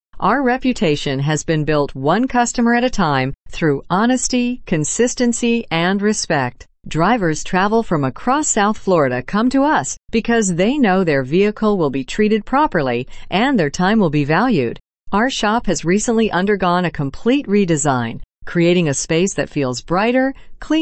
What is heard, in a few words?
Female